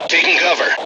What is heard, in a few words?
suppressed, M Voice English